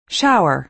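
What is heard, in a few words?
"shower", American English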